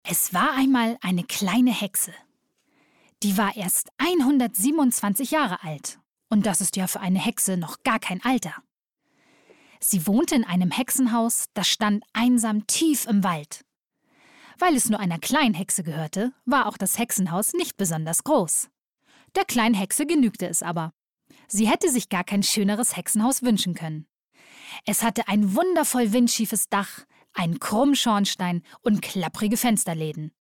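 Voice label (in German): Erzählung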